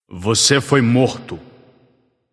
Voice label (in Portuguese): notify slain you, vox caster portuguese